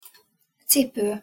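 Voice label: "cipo" pronunciation Hungarian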